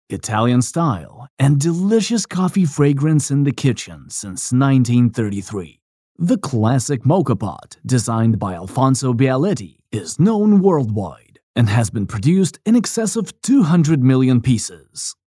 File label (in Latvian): Reklāma ENG